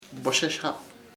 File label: baby, chamacoco; bebE